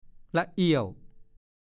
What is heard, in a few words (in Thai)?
"ล่ะเอี้ยว", ภาษาโคราช; เกรียวกราว เสียงเอ็ดอึงพร้อมกันหลาย ๆ เสียง